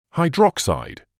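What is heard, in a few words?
"hydroxide", British English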